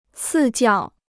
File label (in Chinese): "赐教", Chinese